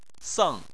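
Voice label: "seng" tone 4, Chinese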